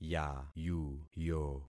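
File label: "yayuyo", concatenated syllables; Affixing experiment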